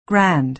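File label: "grand", American English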